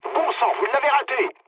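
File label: bomb failure, mood low, French; War Thunder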